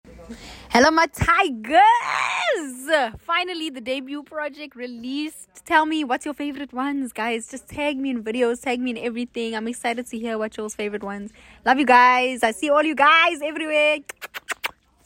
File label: Voicenote